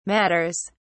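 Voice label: "matters", British English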